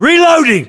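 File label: inform reloading generic, British; battlechatter; localized english; uk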